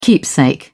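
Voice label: "keepsake", British English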